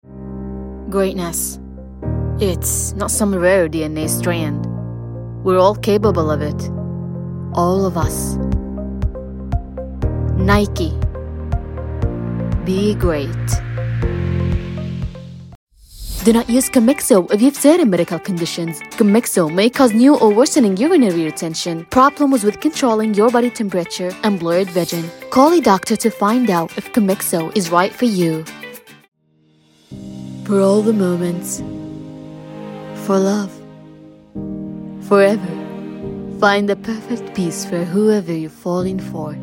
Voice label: voiceover